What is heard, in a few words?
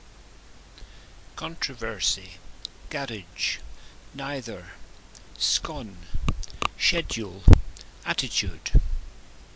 six words male from dornoch edinburgh enfield onwards